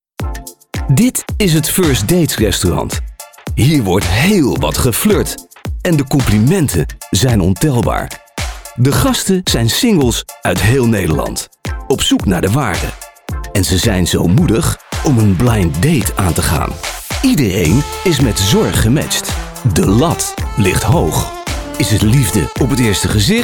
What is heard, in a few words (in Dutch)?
Promo